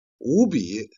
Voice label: "jian", Chinese